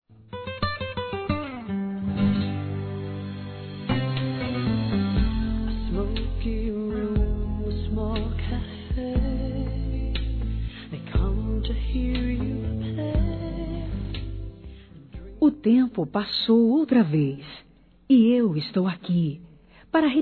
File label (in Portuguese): ROMANTICO F; Telemensagens; Natal e Ano novo; fem